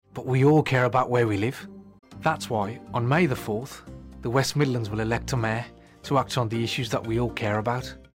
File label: male english west midlands accent mayor election explainer; english northern accents